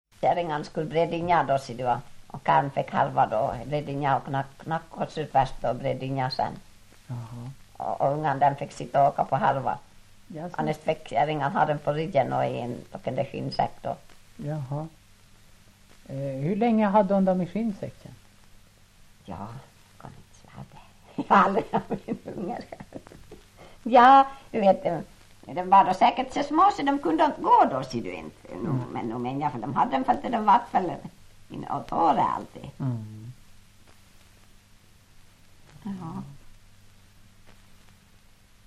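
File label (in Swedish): Ål Dalarna, svenska sagor dialekter; Folkminnen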